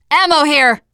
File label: spot ammo, teen girl; player; survivor; voice